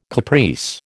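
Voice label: "caprice", American English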